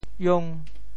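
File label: "iong5", Teochew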